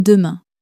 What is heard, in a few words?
"demain", French